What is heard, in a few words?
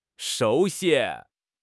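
"shu2 xi1", Chinese; HSK; HSK4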